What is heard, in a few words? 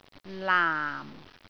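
"laam4", Cantonese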